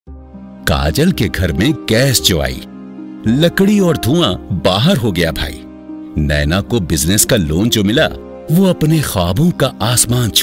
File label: Male